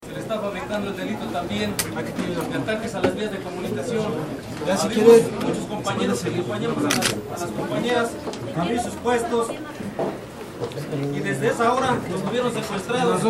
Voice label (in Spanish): denuncias interrumpido; presas del penal de santiaguito